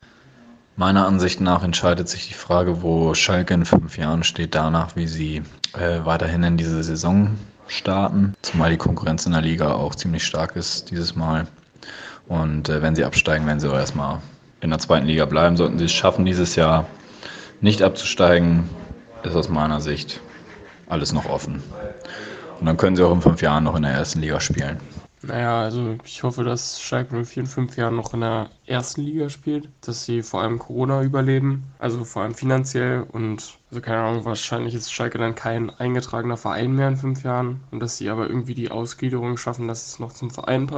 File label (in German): Audio Umfrage; Schalke 04